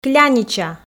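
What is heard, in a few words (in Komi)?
"клянича", Komi, female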